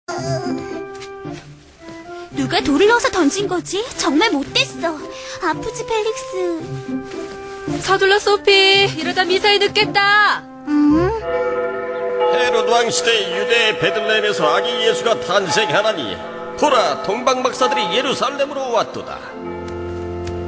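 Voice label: Korean, female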